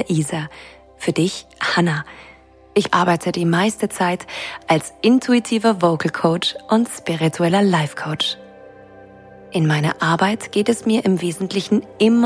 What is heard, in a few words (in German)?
meditation zum abschalten; Gedankenkarussel verlassen; Ruhe und Kraft; Meditation für alle Lebenslagen; Julep Studios; Entspannung